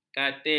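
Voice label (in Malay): "katil", Malay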